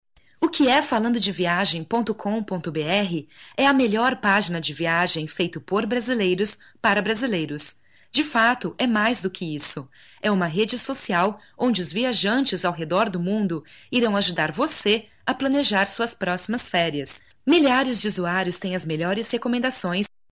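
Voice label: Portuguese Brazil, female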